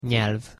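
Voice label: "nyelv", Hungarian pronunciation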